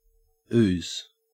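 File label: "ooze", Australian English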